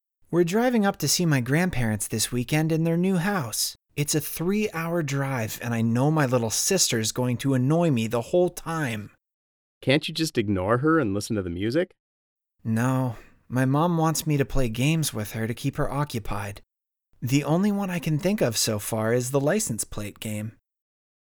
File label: Conversation; Real Heroes 4